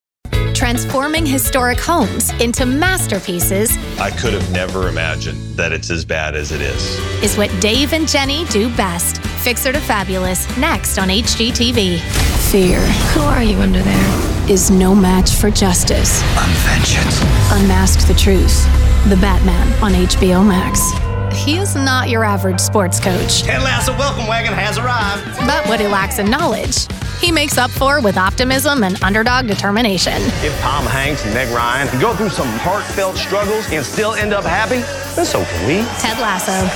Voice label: Promos, femalevoices

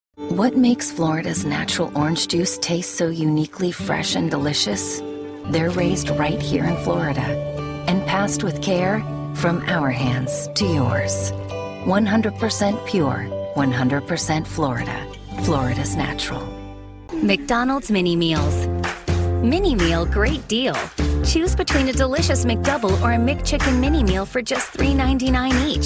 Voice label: Commercial, femalevoices

